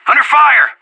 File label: radio taking fire; anarchist